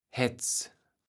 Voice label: "Hätts", Platt

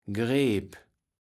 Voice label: "Greep", Platt

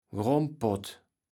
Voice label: "Grommpott", Platt

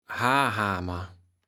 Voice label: "Haarhaamer", Platt

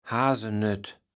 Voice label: "Haasenött", Platt

